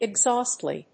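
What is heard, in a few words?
"exhaustly", English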